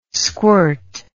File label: "squirt", English